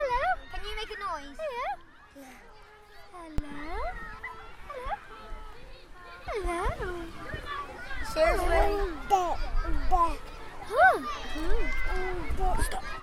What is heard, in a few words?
talking baby